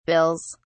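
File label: "bills", English, uk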